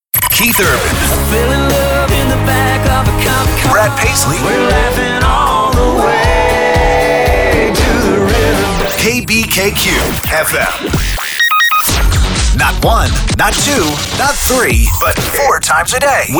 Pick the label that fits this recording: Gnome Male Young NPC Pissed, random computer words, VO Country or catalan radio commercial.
VO Country